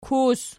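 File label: "kus", nominative, Archi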